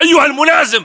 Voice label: rank lieutenant, localized english battlechatter